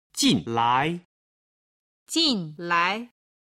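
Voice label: "jin lai", Chinese, male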